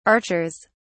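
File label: "archers", English, British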